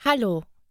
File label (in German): "hallo", German; hello in german